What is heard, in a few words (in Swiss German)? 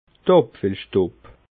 "abfillstub", alsace